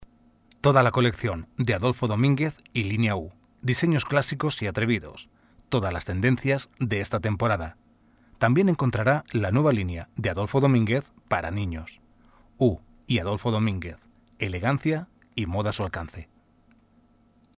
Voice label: locutor spanish voiceover

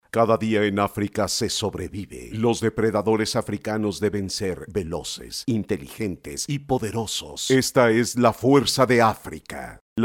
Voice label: locutor mexico mexican voiceover; locutor de doblaje; Locutores de México

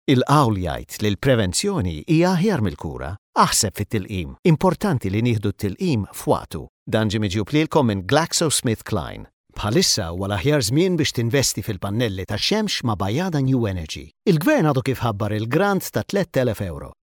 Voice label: locutor malta maltese voiceover; Maltese male voice talent

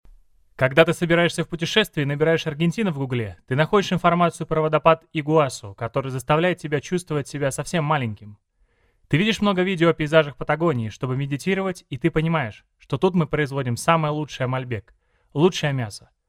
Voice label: locutor ruso russian voiceover

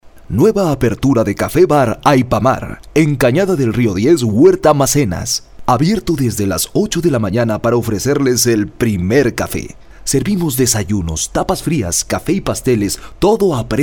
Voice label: locutor mejicano mexican voiceover

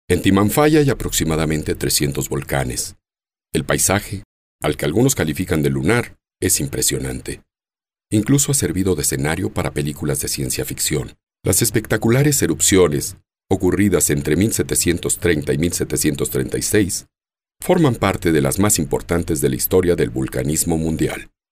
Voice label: locutor mexicano mexican male voiceover

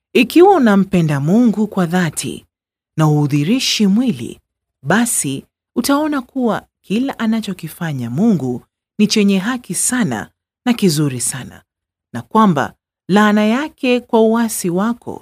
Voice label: locutora swahili female voiceover; locutora swahili de Kenia

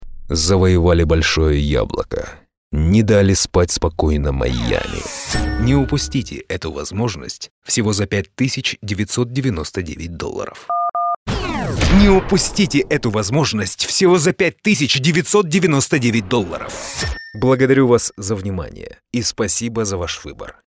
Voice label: locutor ruso russian voiceover; Rusia

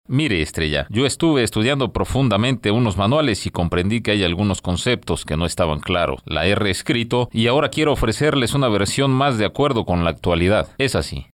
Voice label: locutor mexicano mexican voiceover

